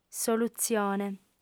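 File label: "solutzione", Sardinian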